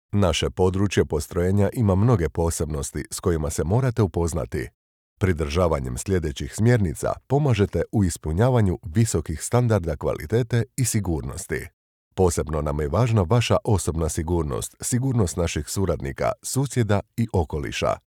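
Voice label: Croatian, male, elearning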